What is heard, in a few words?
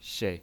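"shei", Mandarin Chinese pinyin, neutral tone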